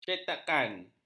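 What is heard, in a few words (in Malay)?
"cetakan", Malay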